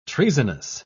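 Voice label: "treasonous", British English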